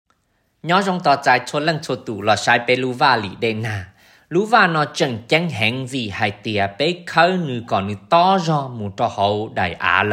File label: Hmong; Retention